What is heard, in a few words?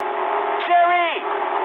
eng enemy detected mood high, speech eng